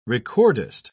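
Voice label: "recordist", British English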